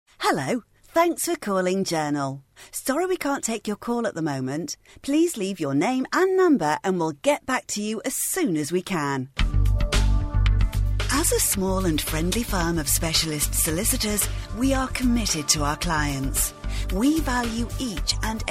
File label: on hold